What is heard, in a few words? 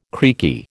"creaky", American